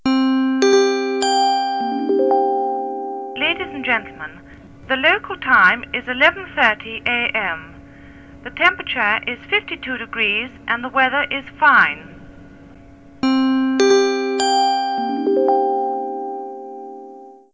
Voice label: Mic Message